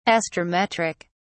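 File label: "astrometric", British English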